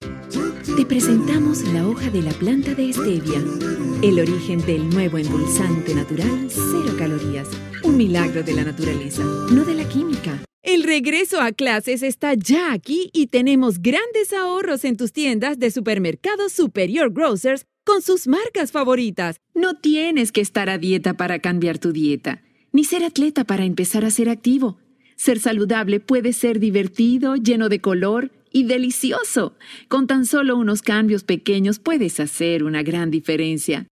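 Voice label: Spanish commercial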